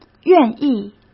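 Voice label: "愿意", Mandarin Chinese; chinese flashcards; hsk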